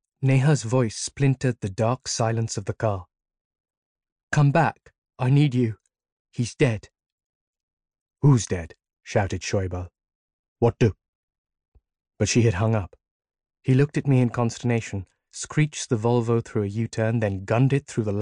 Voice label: Bookread; The Waiter